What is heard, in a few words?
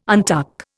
"untuck", Indian